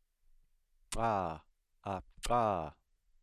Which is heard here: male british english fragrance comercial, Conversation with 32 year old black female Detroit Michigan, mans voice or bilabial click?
bilabial click